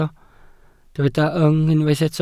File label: "yng", Welsh